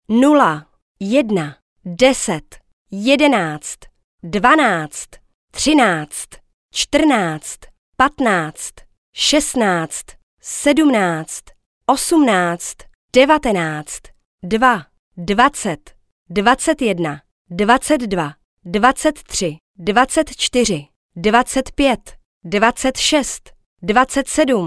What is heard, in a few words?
Dealer Voice, sk, Roulette; real Dealer European Roulette Classic; cs